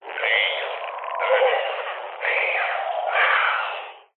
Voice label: horror ghost evp spirit box eerie male says leave leave leave now glitchy; zapsplat